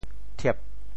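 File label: "thiep4", Teochew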